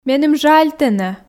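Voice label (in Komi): меным жаль тэнӧ, Komi, female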